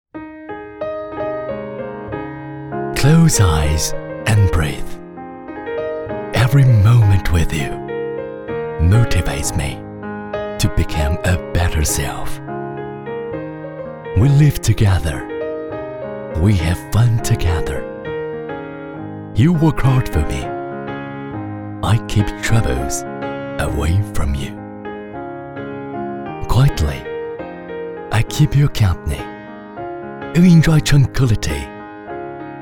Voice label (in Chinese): 男 英文 唯美细腻 品牌宣传; Hennissy Doors and Windows